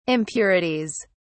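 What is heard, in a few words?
"impurities", British English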